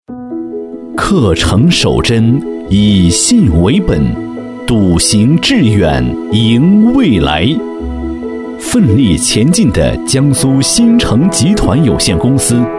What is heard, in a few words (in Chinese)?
男 企业宣传片; 江苏鑫丞集团试样